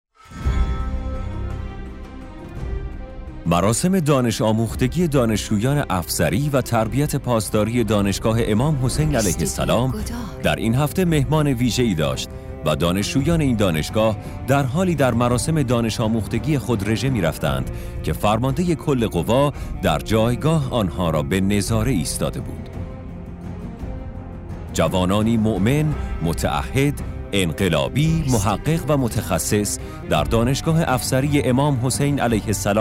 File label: narration